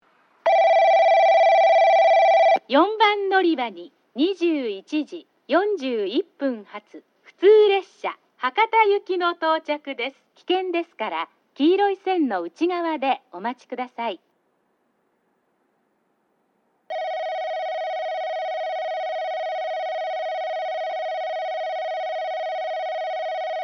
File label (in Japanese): 4番のりば接近放送（普通 博多行き）